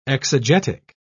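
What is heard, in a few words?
"exegetic", British English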